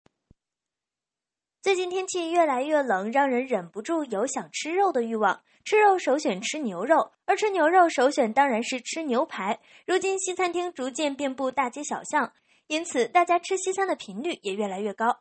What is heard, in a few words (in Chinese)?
女声主持人娱乐类解说